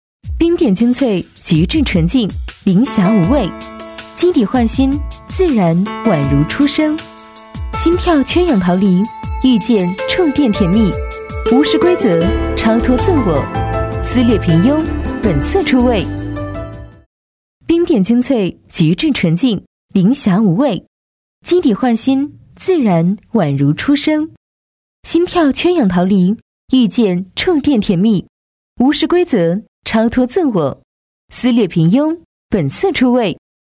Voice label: 女 广告 化妆品

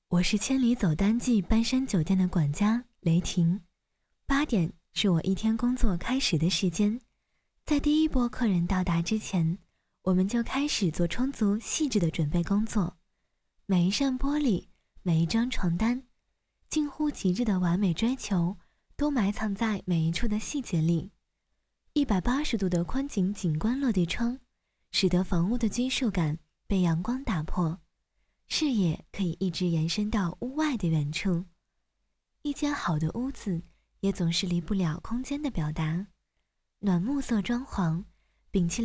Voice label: 宣传片; 曹山未来城

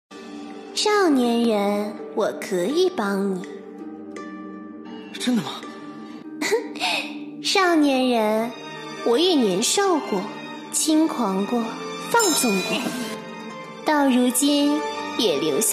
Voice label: 加混响; 宝青坊主

